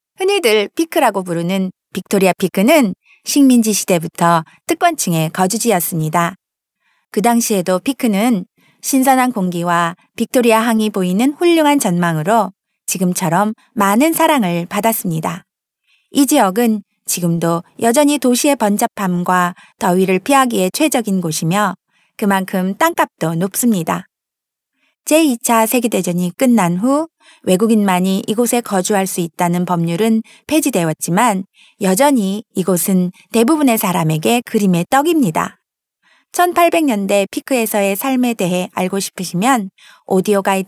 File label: Female Korean Other Projects